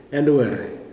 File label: "night", kuman